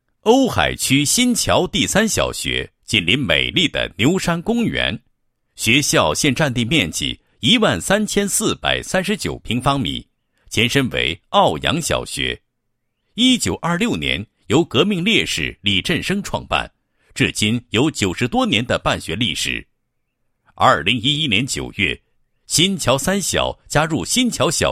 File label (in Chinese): 男-学校宣传; 瓯海区新桥第三小学